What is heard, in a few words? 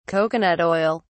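"coconut oil", English, British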